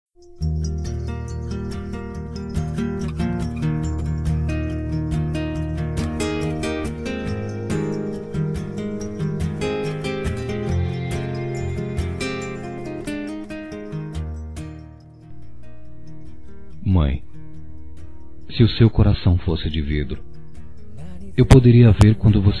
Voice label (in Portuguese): Simbolizando Criança Para Mãe, Telemensagens Dia das Mães, Masc; Telemensagens 2017